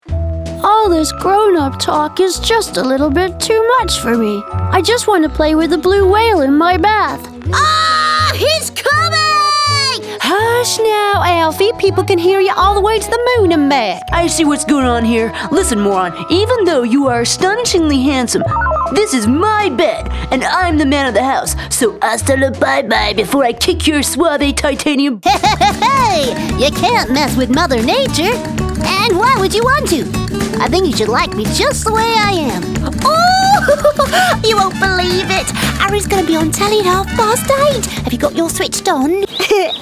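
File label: English US Character Cartoon Voice Overs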